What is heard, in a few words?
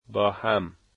together, Dari